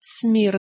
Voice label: "smir", Proto Germanic